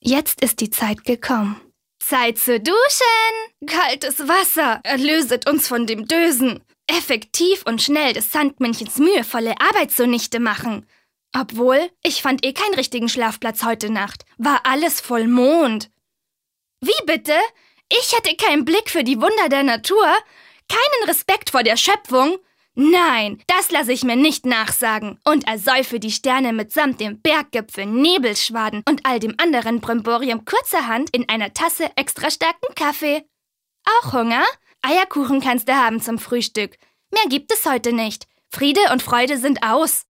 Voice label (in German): Schauspiel Variabel